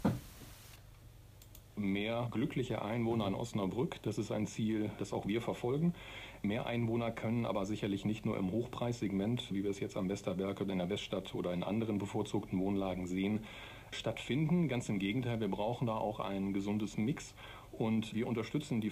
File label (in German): radio